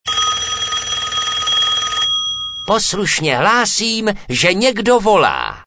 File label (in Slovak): sms hlásky cz